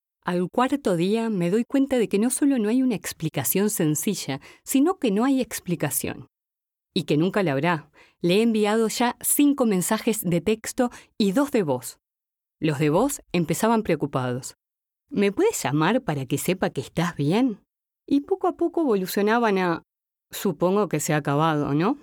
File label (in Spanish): narracion audiolibro